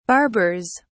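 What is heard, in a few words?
"barbers", British English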